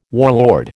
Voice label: "warlord", American